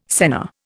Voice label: "senna", Indian